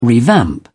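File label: "revamp", British English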